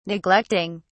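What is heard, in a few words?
"neglecting", British English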